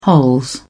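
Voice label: "hols", British English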